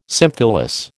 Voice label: "symphyllous", American